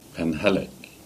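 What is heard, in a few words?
"Penhallick" Cornish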